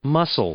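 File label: "muscle", English, male; noun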